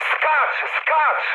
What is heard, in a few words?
bailout mood med, speech pol; War Thunder